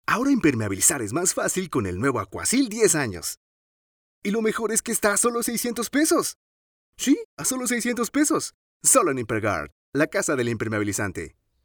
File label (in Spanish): promos